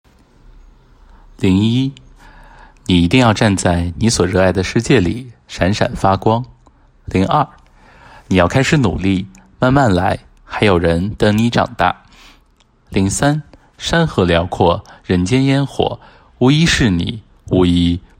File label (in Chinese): 中文